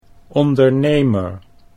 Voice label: "ondernemer", Dutch